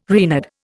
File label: "Reynard", Indian